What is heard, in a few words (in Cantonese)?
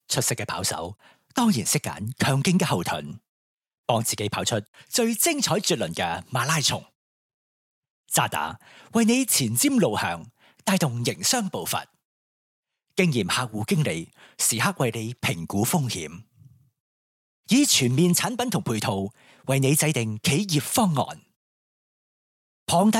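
Cantonese, Commercial; voice demos; GoLocalise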